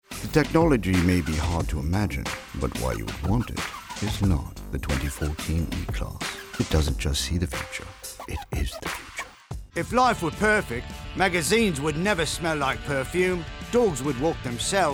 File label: languages british